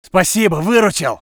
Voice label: voice sov infantry